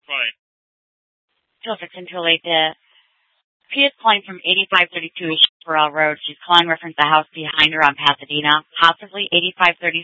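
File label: Scottsdale Police South Dispatch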